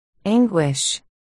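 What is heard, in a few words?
"anguish", spoken word, articulation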